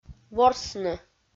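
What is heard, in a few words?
"ворсны", Komi, female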